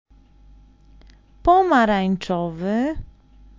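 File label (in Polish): "pomarańczowy", Polish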